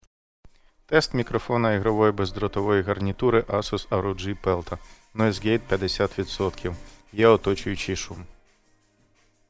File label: Noise Gate